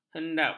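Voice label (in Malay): "hendap", Malay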